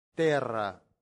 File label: "terra", latin; santillana